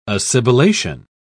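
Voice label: "assibilation", British English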